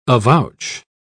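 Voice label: "avouch", British English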